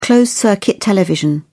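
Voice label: "closed-circuit television", British English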